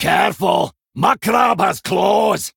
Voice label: Male Dwarf Threaten